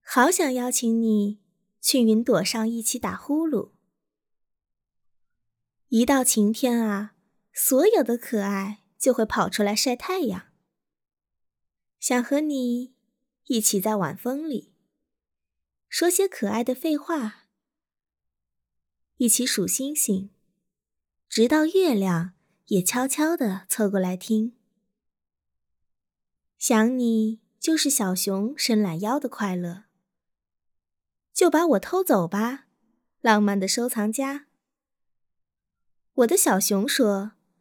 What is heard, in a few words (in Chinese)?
甜美女声